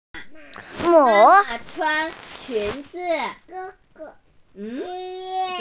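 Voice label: "mu3", Mandarin Chinese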